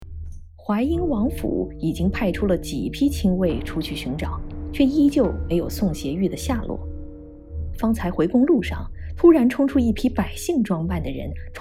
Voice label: 有声书片段配音

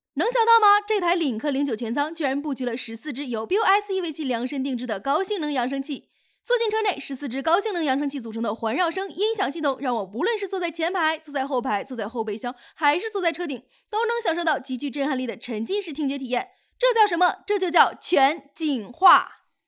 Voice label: 解说配音

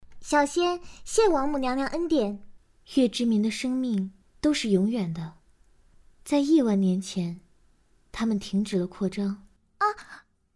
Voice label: 角色样音

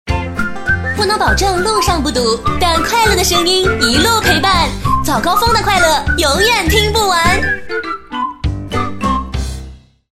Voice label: 电台片头朝气活力

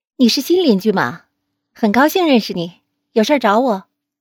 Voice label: 少御音

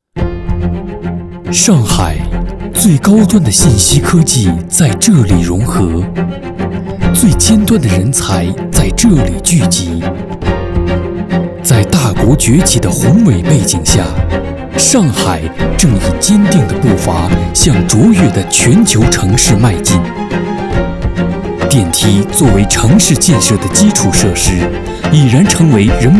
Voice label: 电梯广告宣传; 上海

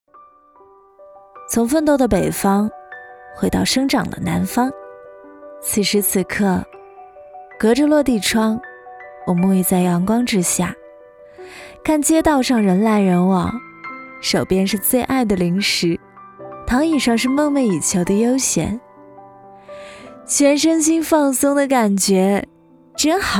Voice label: 独白自然素人松弛走心

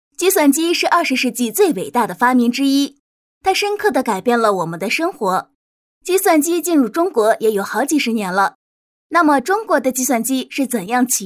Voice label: 课件计算机的发展

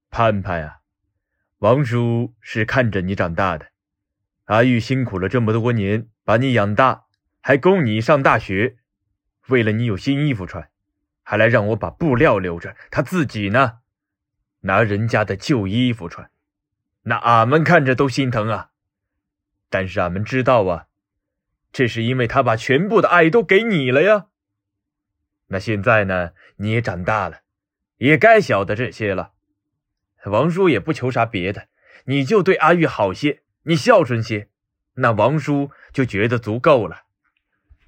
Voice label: 有声书人物配音

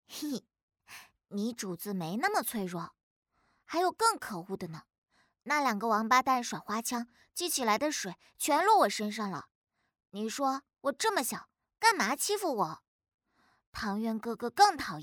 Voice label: 少女音

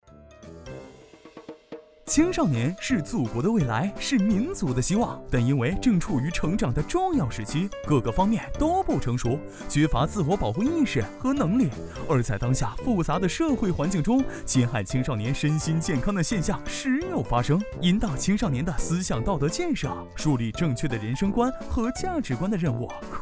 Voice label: 轻松调侃

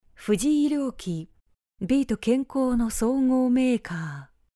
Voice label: 宣传片尾板

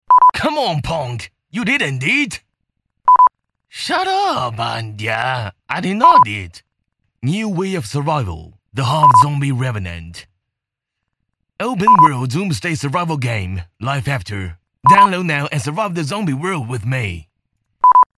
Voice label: 多角色

